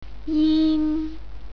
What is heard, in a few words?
"jin", Cantonese